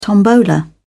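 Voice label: "tombola", British English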